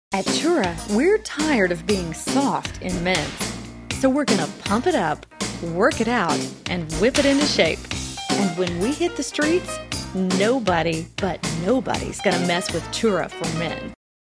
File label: Commercial